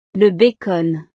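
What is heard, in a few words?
"le bacon", French; desayuno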